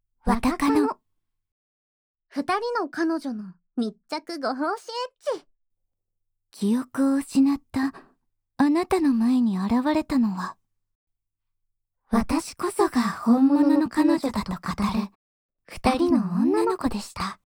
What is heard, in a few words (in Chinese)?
标题朗诵; 我的女友～两个女孩子出现在失去记忆的你面前，自称自己才是你真正的女友～